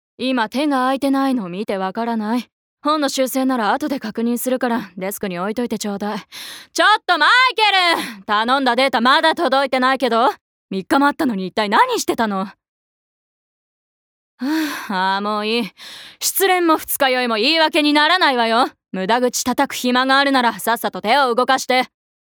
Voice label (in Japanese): 外画